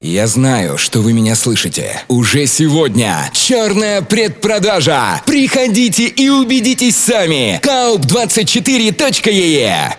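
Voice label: Russian, male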